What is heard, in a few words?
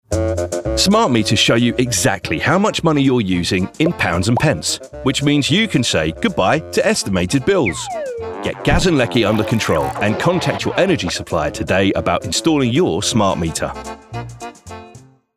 Commercial Smart Meters